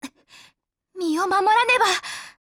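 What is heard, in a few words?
RETREAT, Japanese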